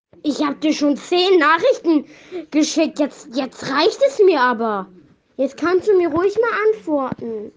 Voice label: rage